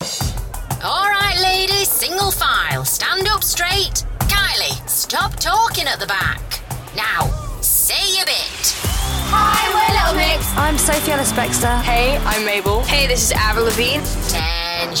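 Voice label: ENUK Radio Northern, British English; GoLocalise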